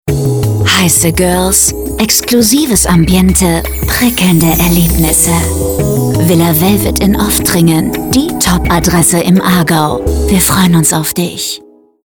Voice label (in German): funkspot; villa velvet